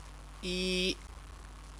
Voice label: "yi", Chinese; a, one, whole; number, attributive

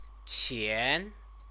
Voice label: "qian", Chinese

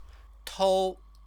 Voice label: "tou", Chinese

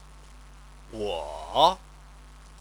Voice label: "wo", Chinese

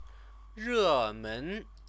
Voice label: "remen", Chinese

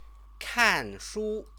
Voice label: "kanshu", Chinese

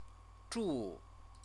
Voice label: "zhu", Chinese; CTCFL; University of Oxford

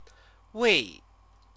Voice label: "wei", Chinese

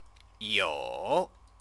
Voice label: "you", Chinese

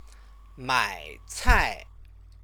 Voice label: "maicai", Chinese; 买 菜; shop for food; verb-object; Chinese lessons

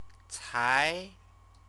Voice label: "cai", Chinese; a moment ago, just; adverb

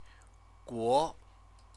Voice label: "guo", Chinese

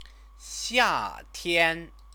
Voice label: "xiatian", Chinese; 夏 天; summer; noun

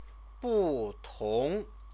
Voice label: "butong", Chinese

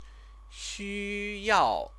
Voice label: "xuyao", Chinese; Chinese lessons

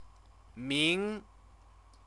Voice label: "ming", Chinese

